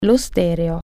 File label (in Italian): "stereo", Italian